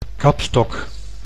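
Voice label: "kapstok", Dutch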